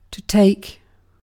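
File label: "take", British English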